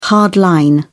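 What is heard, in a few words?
"hard line", British English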